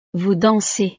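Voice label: "vous dansez", French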